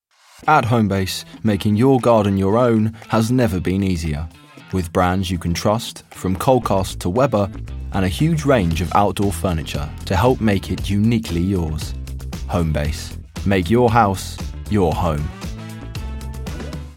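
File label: English UK, commercial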